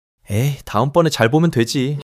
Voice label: joy